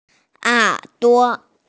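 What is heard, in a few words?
"er duo" (ear), Mandarin Chinese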